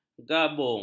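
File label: "gabung", Malay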